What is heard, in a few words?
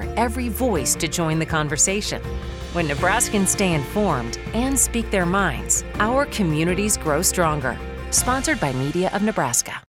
Freedom of Speech Female